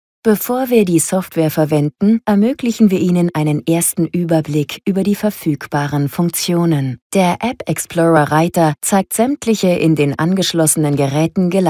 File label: german e-learning